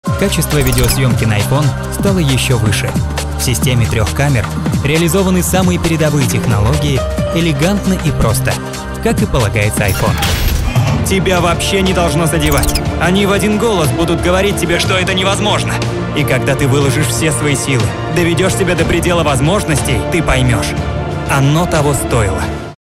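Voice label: Russian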